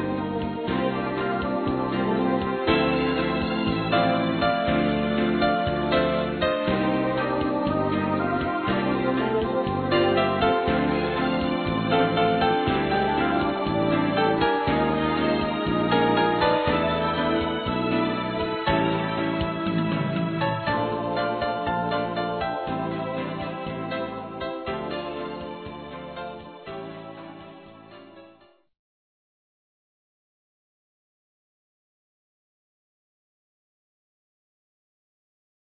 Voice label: advogado F, telemensagens homenagens; fem

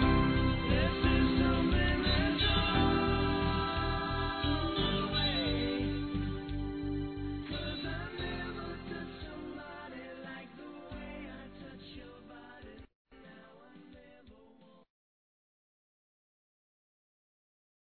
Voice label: Saudade Feminino; Telemensagens; Saudades

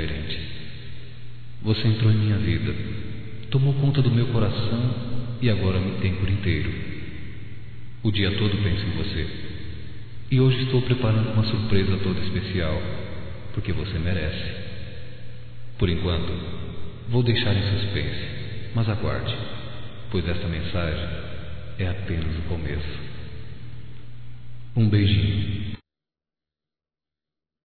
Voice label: ANIV Romântico (M); Telemensagens 2017; Aniversarios

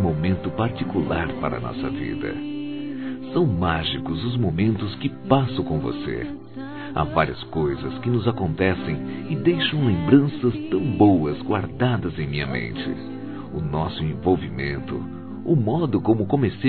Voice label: Romantica Data Especial Masculino, Telemensagens

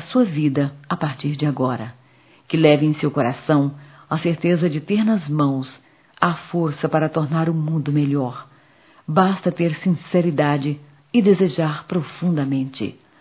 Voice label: Páscoa Neutra-f, Só Voz; fem; Telemensagens 2017

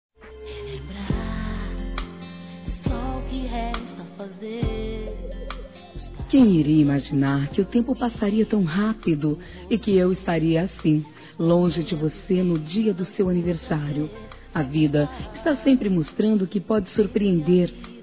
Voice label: FILHO DISTANTE F, Telemensagens, Aniversários, Fem; Aniv Familiar

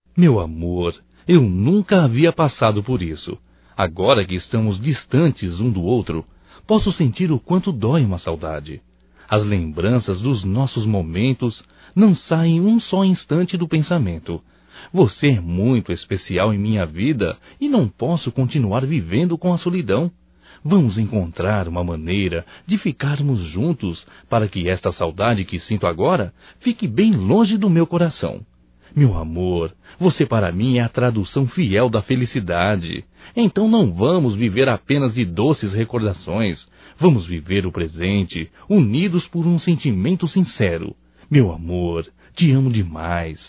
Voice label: SAUDADES ROMANTICA, Só Voz, masc; Telemensagens; Telemensagens 2017

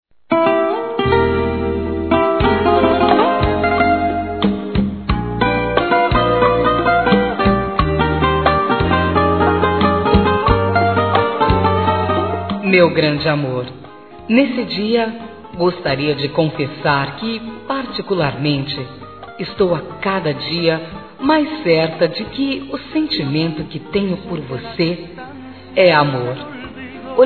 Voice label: Bodas de Ouro Feminino, Telemensagens

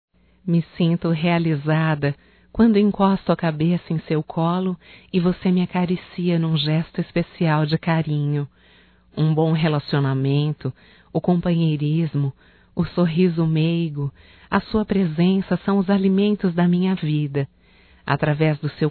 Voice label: ROMANTICA(F), Românticas, fem

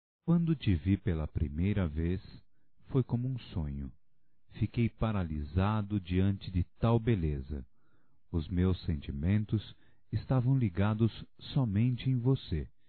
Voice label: Declaração de Amor Masculino; Telemensagens 2017

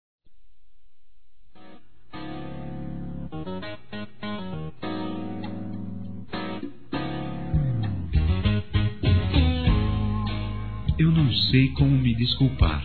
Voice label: aniversário atrazado m

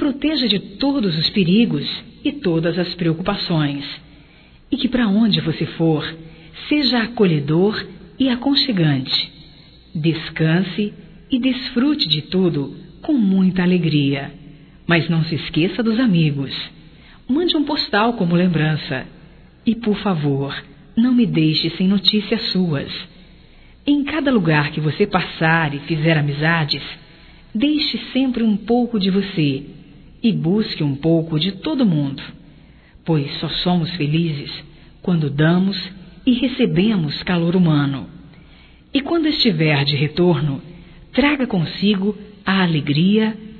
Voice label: Boa Viagem Geral Feminino, Voz; Telemensagens 2017

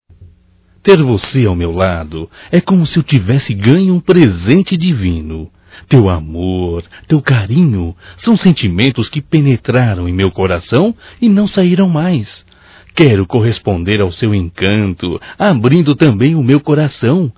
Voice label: Romântico Masculino

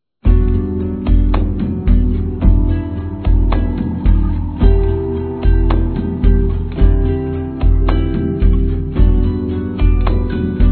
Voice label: Levante a Cabeça Masculino, Telemensagens; Otimismo

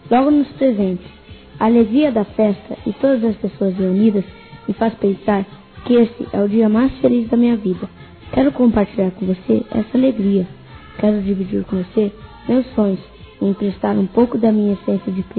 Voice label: Natal Infantil Masculino, Telemensagens; Natal e Ano novo

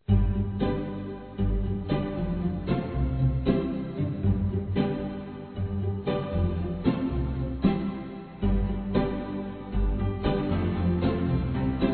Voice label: Aniversário Cliente Feminino, Telemensagens Empresarial; Telemensagens 2017

